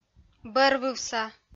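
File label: "бӧрвывса", Komi, female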